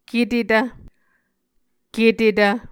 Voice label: "ketetv", Muscogee

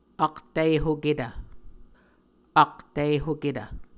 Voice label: "aktihoketv", muscogee; Mvskoke Words with Voicing Consonants; A Dictionary of Creek/Muskogee; SIL International

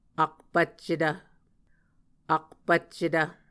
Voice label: "akpvccetv", Muscogee; Mvskoke Words with Repeated Consonants; A Dictionary of Creek/Muskogee; SIL International

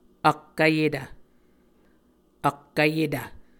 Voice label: "ak vyetv", Muscogee

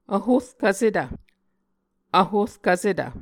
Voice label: "vhorkvsetv", Muscogee

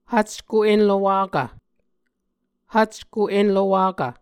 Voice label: "hvcko enlowakv", Muscogee; Mvskoke Words with Voicing Consonants; A Dictionary of Creek/Muskogee; SIL International; Onvkv Ohhvyayices

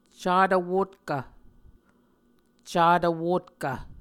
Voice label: "catvwotkv", muscogee

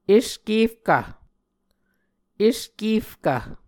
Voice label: "eskerkv", Muscogee

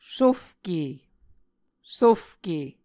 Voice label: "sofke", Muscogee

